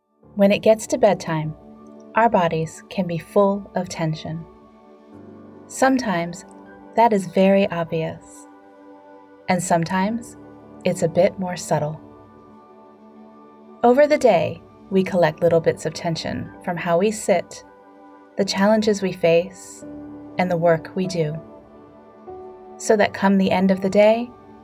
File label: Wellness Voice Demo; Hello Sleep; Wellness: Hello Sleep Intro